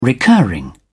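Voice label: "recurring", British English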